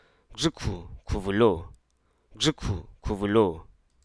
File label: hruso, clench